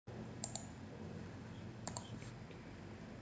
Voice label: voice message